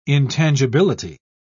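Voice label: "intangibility", British English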